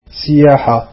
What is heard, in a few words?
arabic tourism